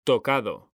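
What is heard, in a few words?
"tocado", Spanish, male; mekanta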